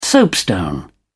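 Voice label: "soapstone", British English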